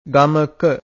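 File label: "gamaka", Pali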